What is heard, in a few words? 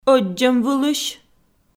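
"ӧддзӧмвылысь", Komi, female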